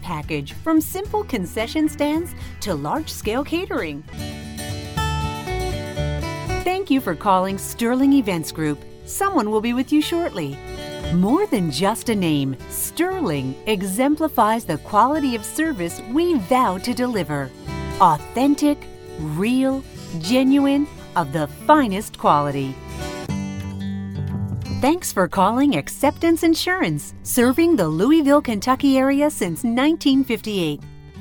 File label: On Hold